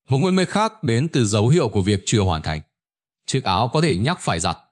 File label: narration